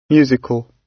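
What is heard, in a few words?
"musical", British English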